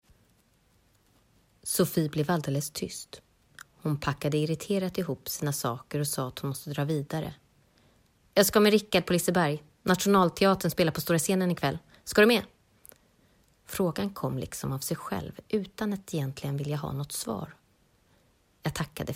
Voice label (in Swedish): Story